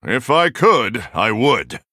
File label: Male Human ERROR GENERIC; ULDA Reno